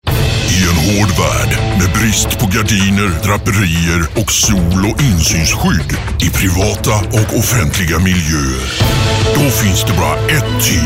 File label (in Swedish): radioreklam